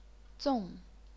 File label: "zong4", chinese pinyin